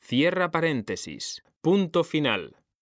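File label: "CERRAR PARENTESIS PUNTO FINAL", Spanish; mekanta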